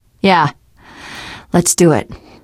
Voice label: generic responses, teen girl, voice; source engine; left 4 dead 2; survivor

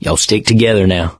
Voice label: stay together, mechanic; source engine; left 4 dead 2

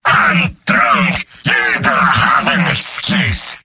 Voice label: jeers; demoman; video games; source engine; tf; mvm

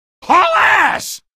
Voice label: get aboard, male; video games; npc; source engine; episodic; episode 1

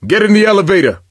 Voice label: misc directional, coach, survivor voice

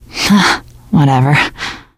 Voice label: you are welcome, teen girl; source engine; left 4 dead 2; survivor

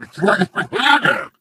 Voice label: impatient

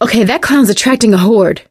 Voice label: see clowns, survivor voice; source engine; left 4 dead 2; producer

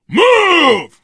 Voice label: emphatic go, survivor voice

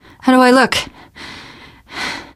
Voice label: getting revived, teen girl; survivor voice

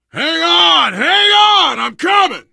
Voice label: to the rescue, survivor voice biker; source engine; left 4 dead 2

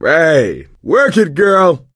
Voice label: nice shot female, player survivor voice; source engine; left 4 dead 2

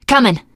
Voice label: im with you, teengirl; voice; source engine; left 4 dead 2; survivor

